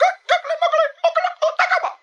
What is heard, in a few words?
gah googly a goo goo hegga, gibbrish; Voice